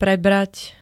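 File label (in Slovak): "prebrat", Slovak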